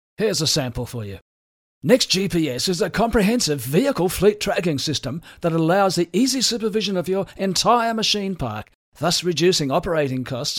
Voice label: GPS voice sample